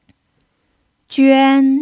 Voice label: "juan1", chinese